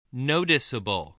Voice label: "noticeable", voice, spelling